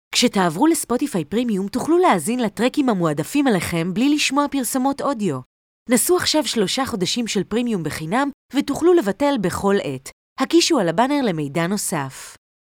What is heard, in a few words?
Hebrew promos